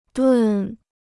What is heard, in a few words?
"炖", Chinese